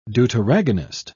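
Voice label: "deuteragonist", British English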